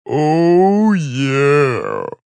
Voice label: "Oh yeah", Male; Exclamation; VoiceBox

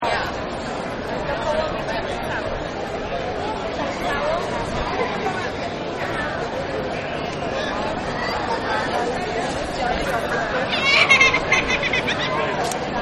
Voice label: Lots of Talking, Crowds; Backgrounds

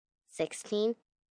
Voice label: Numbers Sixteen, Numbers, 1 child, girl counting; Talking

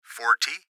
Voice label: Gps Voice, Male

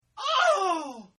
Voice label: "Oh", male; Exclamations; Voice

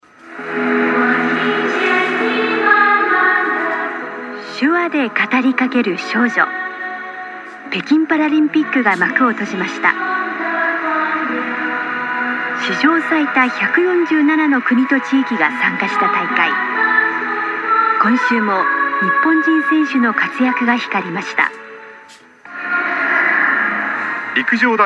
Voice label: Japanese Television, Japanese TV commercials; Japan: Japanese TV commercials. Various Japanese voices and background music. Promotional.; Television Sound Effects

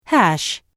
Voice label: "Hash", Telephone prompt; Pre-recorded telephone on-hold message; Recorded Voice Sound Effects

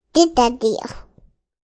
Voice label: Toddler Says Get a Deal, Toddler talks; Talking; Sound Effects:Voice

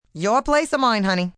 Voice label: Your Place, Sexy, fun, female; VoiceBox

